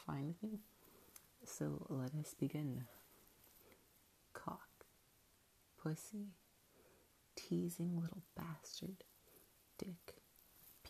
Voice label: Accent Challenge

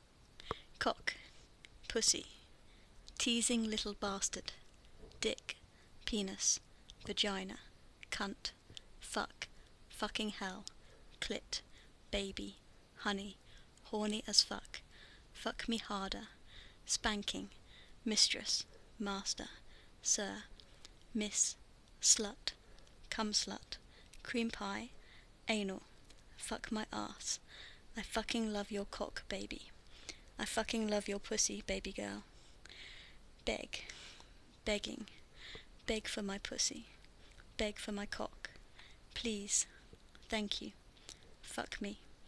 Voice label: Accent Challenge

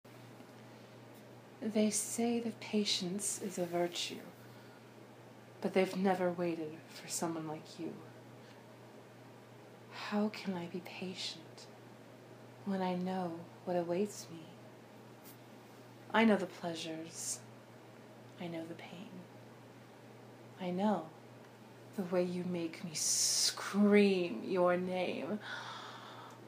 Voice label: [F4M] [Erotic poetry]; Fsub; Oral; Pain play; Playfully wistful; Good Little Kitten; Patience is a virtue

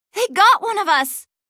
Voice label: AI Uhoh; Li Li; Heroes of the Storm; warcraft

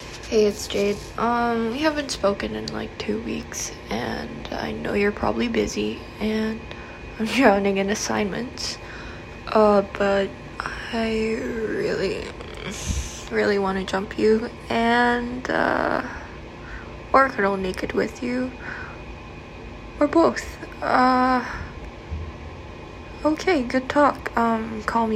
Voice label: Voice mail

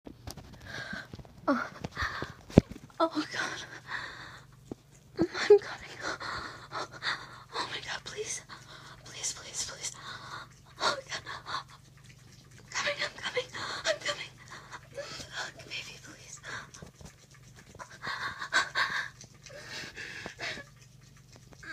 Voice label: Begging to finish

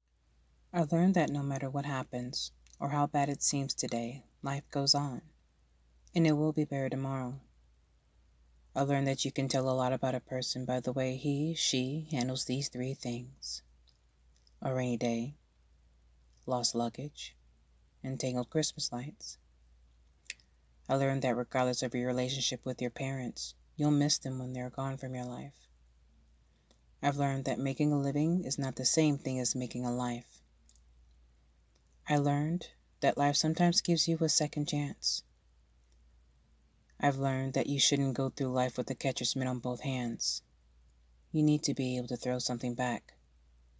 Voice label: In a solemn mood, F, Kind words

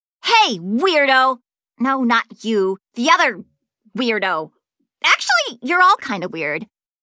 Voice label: Social Taunt; Li Li; Heroes of the Storm; warcraft

